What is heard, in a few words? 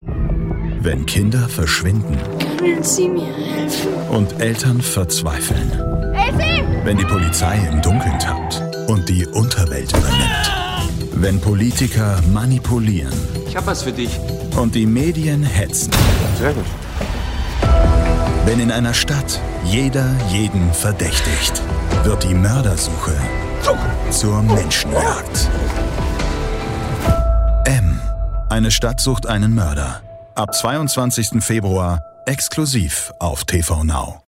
Mystery Trailer; TV NOW